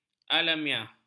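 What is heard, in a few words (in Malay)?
"alamiah", Malay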